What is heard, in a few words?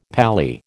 "pally", American